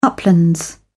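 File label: "uplands", British English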